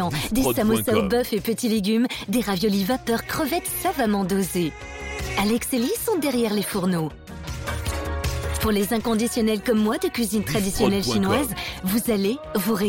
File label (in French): Radio Spot Au Cafe de la Poste, publicite